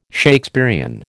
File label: "Shakespearian", American